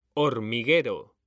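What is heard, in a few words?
"hormiguero", Spanish, male; mekanta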